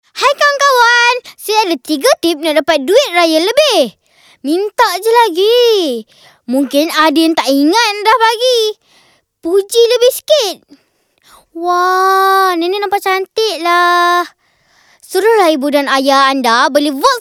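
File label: Malay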